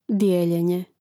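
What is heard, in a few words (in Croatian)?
"dijeljenje", Hrvatski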